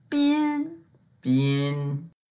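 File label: "bin1", Mandarin Chinese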